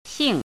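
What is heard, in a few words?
"xing4", Mandarin pinyin syllable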